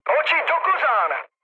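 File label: player friendly fire, Czech, mood med; speech; War Thunder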